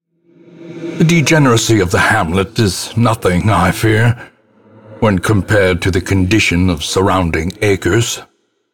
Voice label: narr town backstory; ancestor